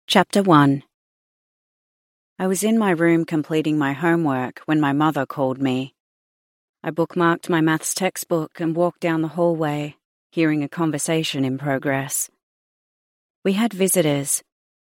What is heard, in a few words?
Audiobook AU YA, Australian Female Audiobook Narrator; australian voice over; audiobook narration; Almas Loyalty